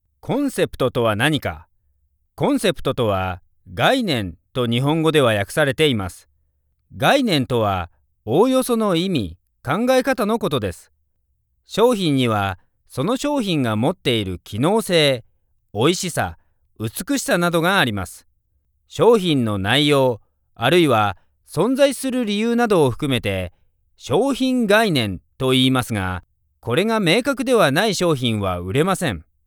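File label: Japanese, male, business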